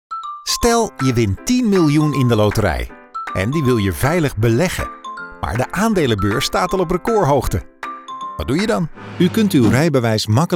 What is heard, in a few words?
corporate